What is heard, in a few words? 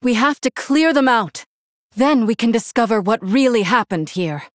Female Human; Jaina